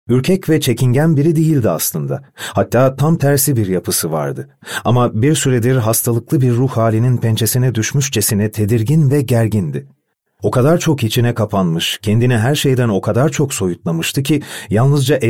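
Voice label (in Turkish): KITAP, Seslendirme; Sesli Kitap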